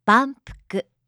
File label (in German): "banpuku", japanische maedchennamen